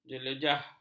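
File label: "jelejeh", Malay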